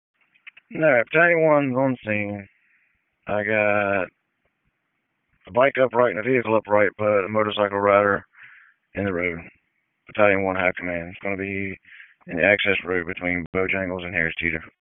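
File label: Public Safety Tactical, p25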